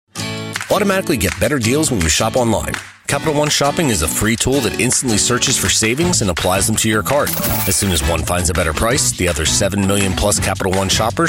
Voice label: commercial

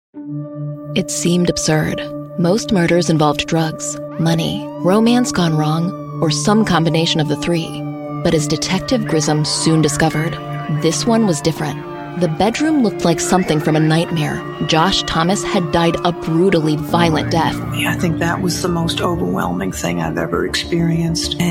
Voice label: voice narration sample

